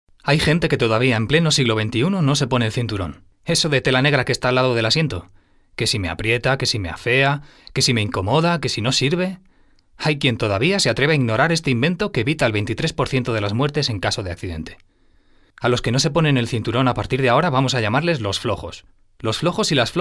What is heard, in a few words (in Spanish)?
locutor castellano